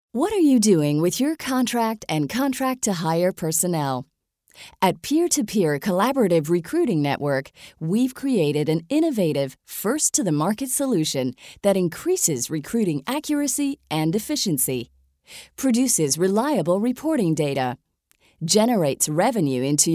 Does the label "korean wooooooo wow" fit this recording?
No